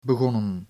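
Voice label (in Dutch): "begonnen", Dutch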